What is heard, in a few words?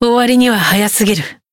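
Voice heal, Japanese; clarte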